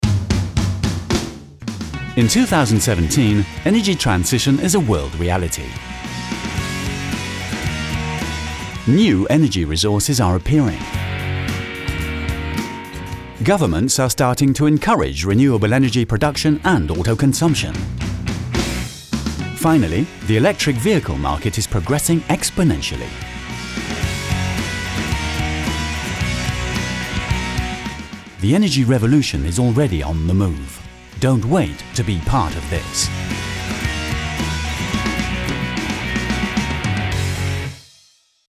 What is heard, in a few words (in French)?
Corporate